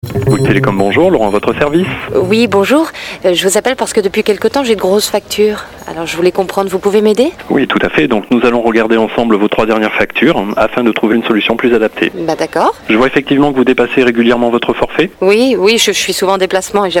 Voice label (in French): pub comedie; bouygues telecom